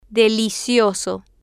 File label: "delicioso", Spanish